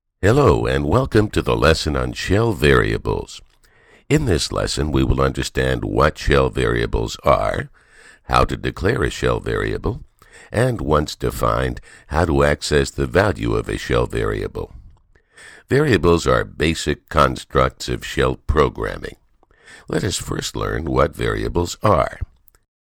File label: Short E-Learning